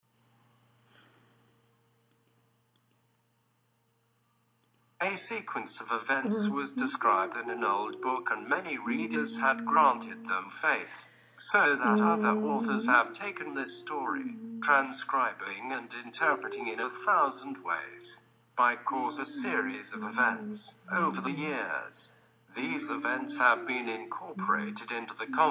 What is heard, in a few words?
english